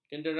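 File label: "kendera", Malay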